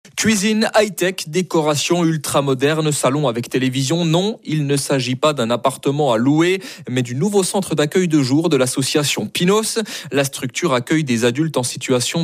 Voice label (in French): Reportage